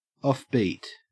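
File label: "offbeat", Australian English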